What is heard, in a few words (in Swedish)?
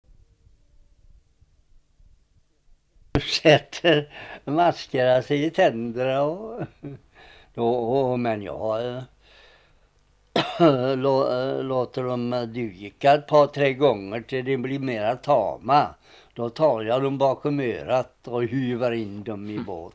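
Fristad Västergötland, dialekter; Folkminnen; Svenska sagor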